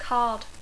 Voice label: "card", English; targeta; carta; naip

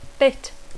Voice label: "bit", English; tros; xic; mica

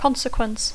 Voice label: "consequence", English; conseqüència

